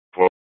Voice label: FD Dpts VHF 156.240 Patch